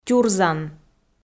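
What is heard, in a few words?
"тюрзан", Komi, female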